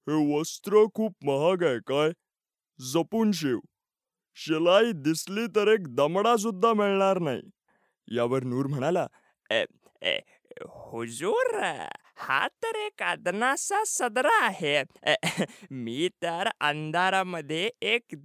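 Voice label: Marathi India male character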